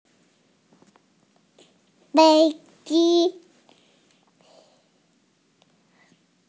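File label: "fei1 ji1", Mandarin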